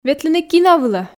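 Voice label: ветлыны кино вылӧ, Komi, female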